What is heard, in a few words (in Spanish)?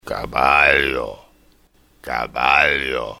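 "caballo" Spanish word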